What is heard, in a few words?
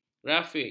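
"grafik", Malay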